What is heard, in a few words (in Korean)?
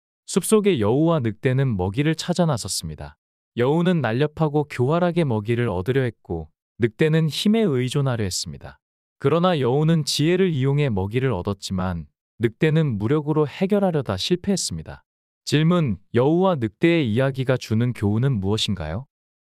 여우와 늑대 이야기 남자